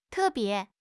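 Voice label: "te4 bie2", Chinese; HSK3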